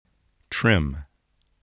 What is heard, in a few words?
"trim", voice